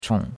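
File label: "chong3", Chinese; Cours de Chinois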